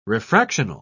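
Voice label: "refractional", English, uk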